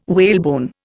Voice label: "whalebone", Indian